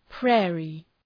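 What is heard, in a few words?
"prairie", English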